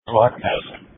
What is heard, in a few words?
Police Tactical Encrypted